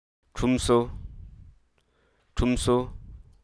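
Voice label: Hruso Aka; complain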